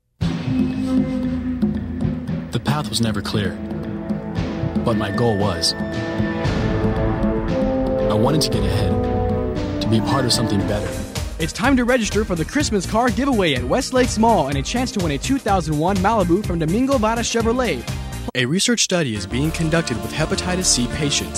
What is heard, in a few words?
english males